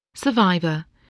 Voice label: "survivor", English